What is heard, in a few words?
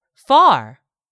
"far", English; 3000 words